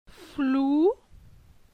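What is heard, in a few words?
"Flou", French; phonetique; la syllabe ou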